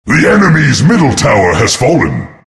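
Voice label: announcer tower fall enemy mid; Nature's Prophet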